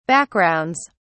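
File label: "backgrounds", British English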